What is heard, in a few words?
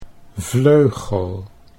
"vleugel", Dutch